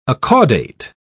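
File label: "acaudate", English, British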